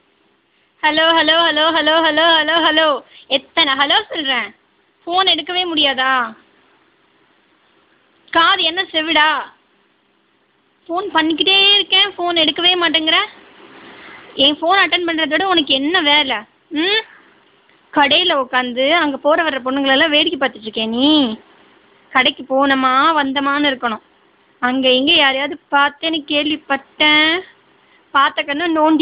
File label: Girl Voice In Tamil